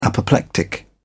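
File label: "apoplectic", British English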